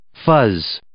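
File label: "fuzz", English; noun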